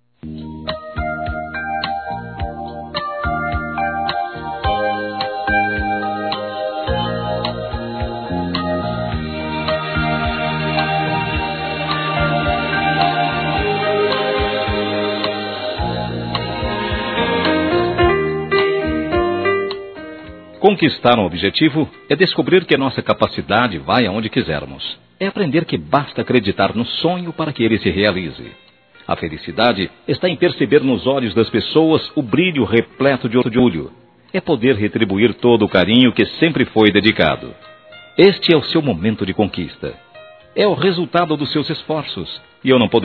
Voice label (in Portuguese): Vestibular Masculino; Quem Passou; Telemensagens 2017; Formaturas